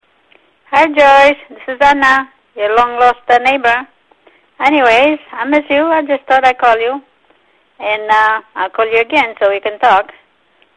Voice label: answering machine messages, calls from new house